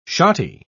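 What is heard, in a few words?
"shotty", British English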